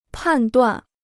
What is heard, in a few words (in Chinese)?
"判断", Chinese